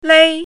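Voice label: "lei1", Chinese pinyin, first tone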